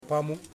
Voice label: "Leg", Yimas